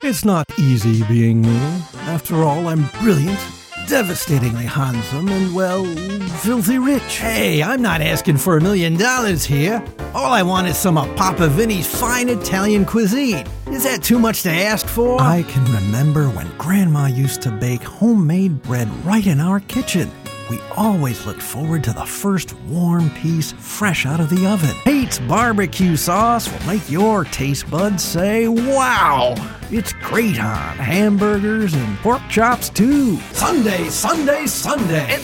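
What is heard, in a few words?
characters, voice actor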